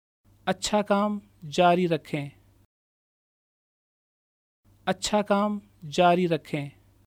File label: Keep up the good work Urdu